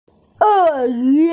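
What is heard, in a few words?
"e yu" Chinese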